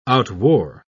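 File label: "outwore", English, British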